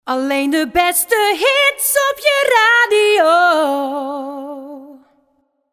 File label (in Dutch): Alleen de beste Hits op je radio (zonder SFX), jingles